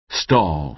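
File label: "stall", American English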